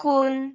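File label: "gun6", Cantonese; microsoftHiuMaan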